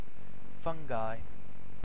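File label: "fungi", British English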